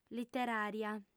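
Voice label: "literària", female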